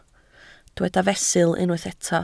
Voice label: "fesul", Welsh